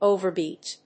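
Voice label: "overbeat", English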